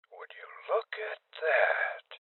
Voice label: Voices Loot Collect Male Old; rebel cops 2019 gamerip